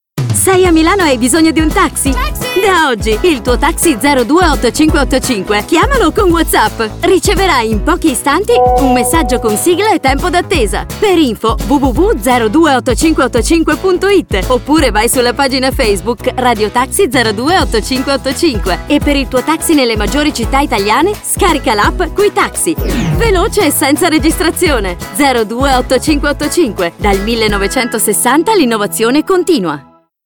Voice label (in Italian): SPOT RADIOTAXI